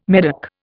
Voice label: "Medoc", Indian